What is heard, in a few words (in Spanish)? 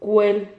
"cuel", Silabas